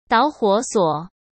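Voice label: "导火索", Mandarin Chinese; hsk; chinese-flashcards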